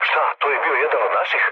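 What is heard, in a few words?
Serbian player killed ally mood low; speech; War Thunder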